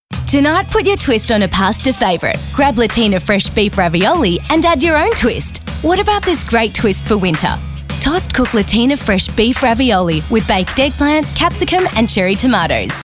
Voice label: LATINA

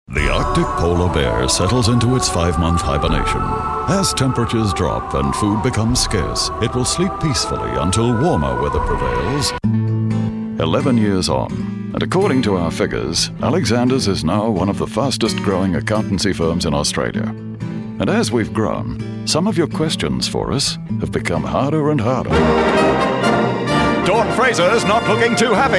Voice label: corporate

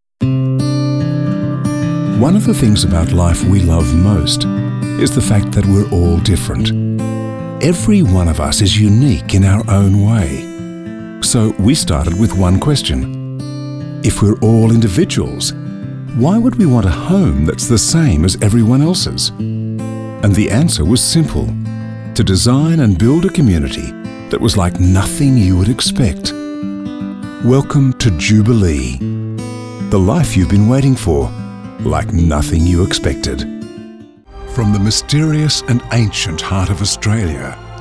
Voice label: Corporate

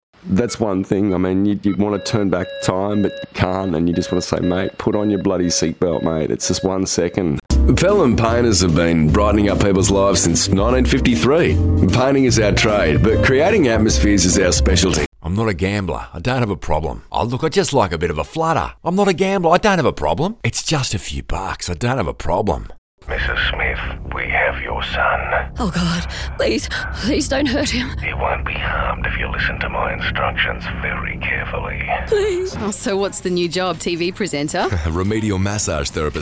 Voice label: ACTING

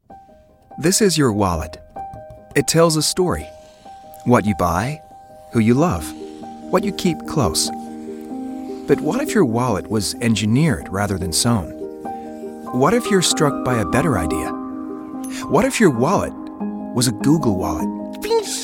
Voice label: Character Accents

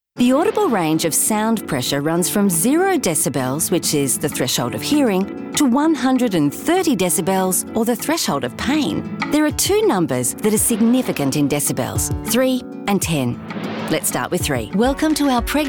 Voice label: Narrations

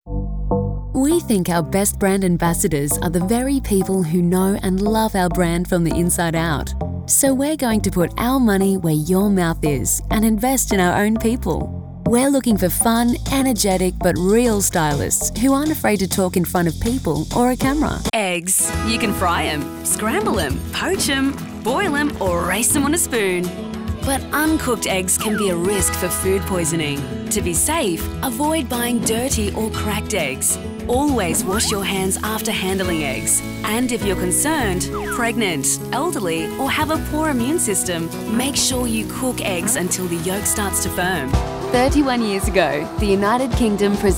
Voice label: narration and corporate

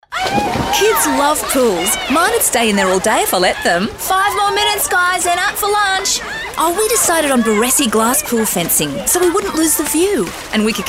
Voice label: Voice Acting

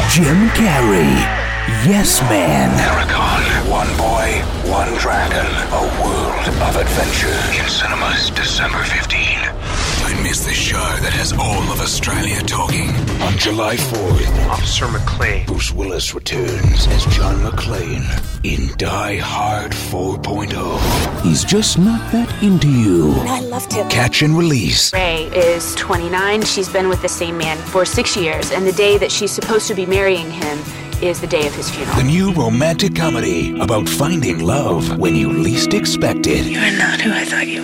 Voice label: movie trailer

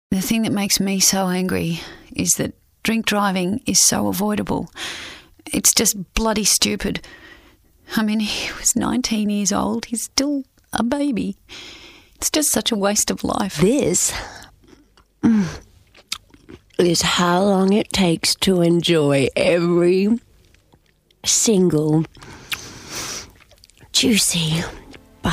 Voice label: acting

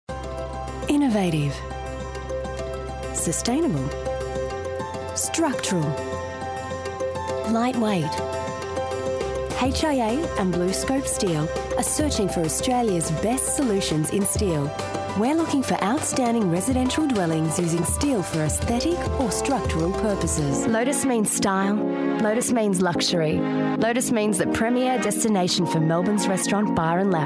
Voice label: corporate

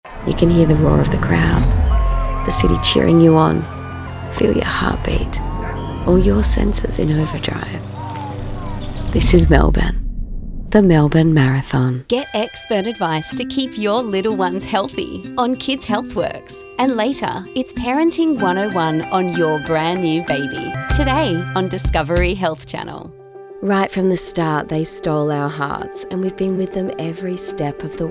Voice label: Promos

